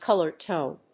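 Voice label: "color tone", English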